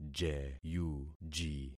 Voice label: "jeyuji", concat syllables; Affixing experiment

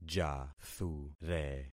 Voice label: "jathure", concat syllables; Affixing experiment

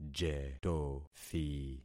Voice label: "jetothi", concat syllables; Affixing experiment

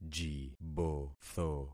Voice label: "jibotho", concat syllables; Affixing experiment

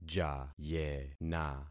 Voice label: "jayena", concat syllables; Affixing experiment

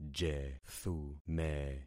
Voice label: "jethume", concat syllables; Affixing experiment

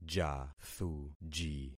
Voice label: "jathuji", concat syllables; Affixing experiment

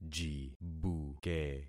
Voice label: "jibuke", concat syllables; Affixing experiment

